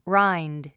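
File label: "rind", English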